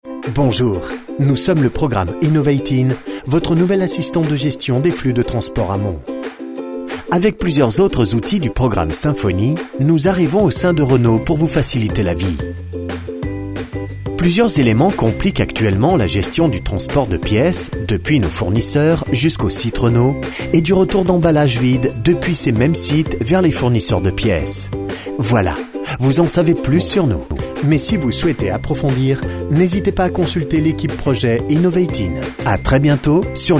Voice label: corporate posé; Renault

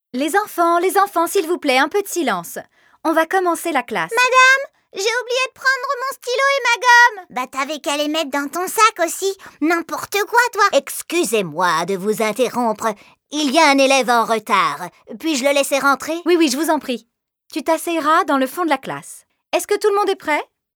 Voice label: 4 voix

